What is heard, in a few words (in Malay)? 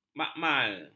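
"makmal", Malay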